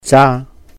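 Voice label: "jà", Cantonese; hold; 揸; holidays and festivals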